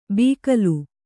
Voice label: "ಬೀಕಲು", Kannada